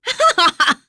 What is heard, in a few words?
Vox Happy; Nicky; kingsraid; voices heroes en